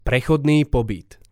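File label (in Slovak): "prechodny pobyt", Slovak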